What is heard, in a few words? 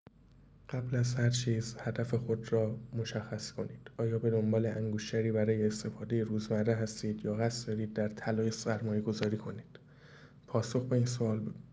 voice msg